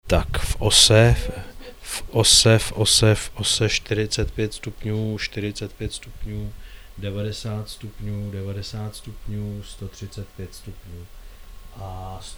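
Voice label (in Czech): vocal, Směrovost, Sennheiser e945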